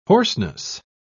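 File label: "hoarseness", British English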